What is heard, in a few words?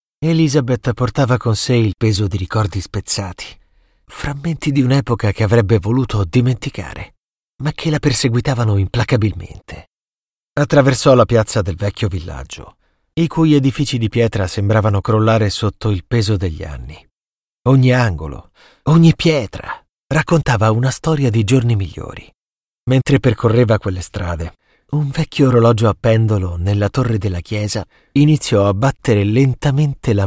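Italian, Audiobook Romance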